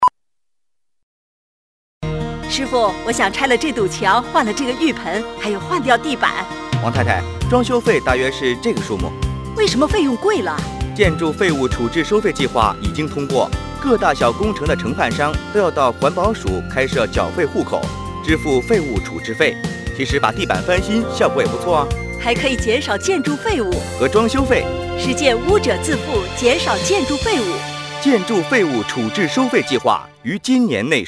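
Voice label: radio Mandarin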